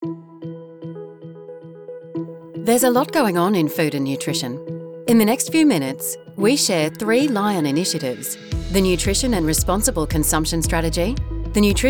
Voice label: Friendly Professional, voiceover